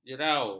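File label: "jerau", Malay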